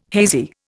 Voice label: "hazy", Indian